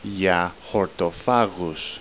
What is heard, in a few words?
"vegetarian", Greek